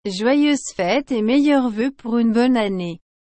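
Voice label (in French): "Joyeuses fête et meilleurs vœux pour une bonne année", French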